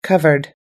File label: covered, us english